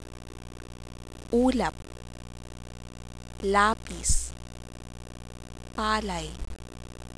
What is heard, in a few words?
p, Tagalog, Consonants